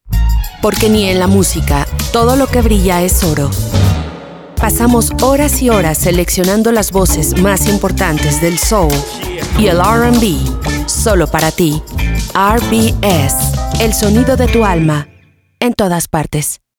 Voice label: Millennial Radio Promo Music Fashion Channels